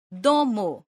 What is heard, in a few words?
"domo", esperanto